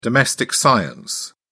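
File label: "domestic science", British English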